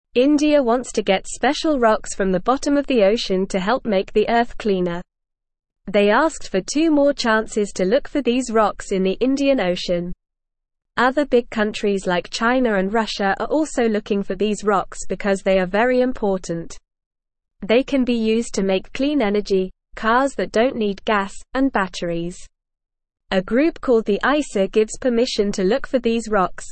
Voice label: English Beginner NORMAL Reading; English Newsroom; India Wants More Chances to Find Special Rocks